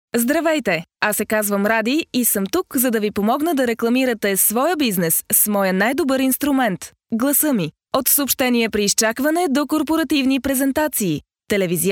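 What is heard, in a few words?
Bulgarian, female, voice over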